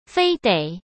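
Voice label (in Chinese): "非得", Mandarin Chinese; chinese flashcards; hsk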